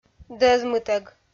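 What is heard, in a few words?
"дӧзмытӧг", Komi, female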